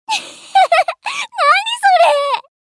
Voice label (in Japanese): （笑う）「あっははは。何それ～！」; エモーション